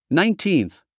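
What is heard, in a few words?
"nineteenth", English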